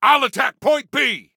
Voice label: ATTACK, Soldier Male